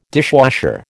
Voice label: "dishwasher", American